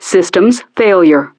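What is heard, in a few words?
"Systems Failure", English; DCS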